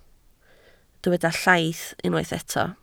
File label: "llaith", Welsh